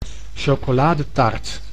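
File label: "chocoladetaart", Dutch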